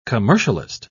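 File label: "commercialist", English, British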